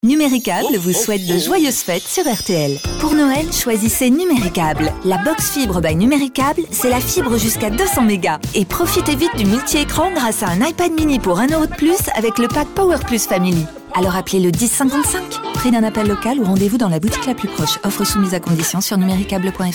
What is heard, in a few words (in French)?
pub punchy souriante; NUMERICABLE